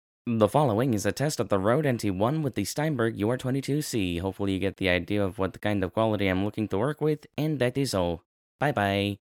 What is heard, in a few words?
rode nt1 with steinberg ur22c